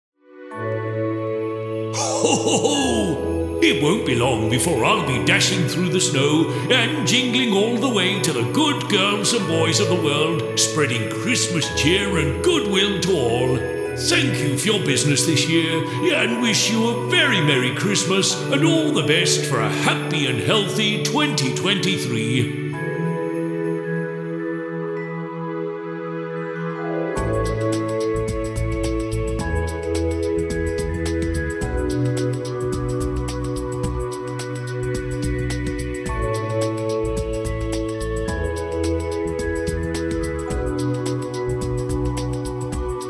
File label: Santa On Hold; iNarrator